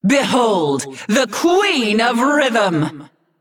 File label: Female Naga Emote Greetings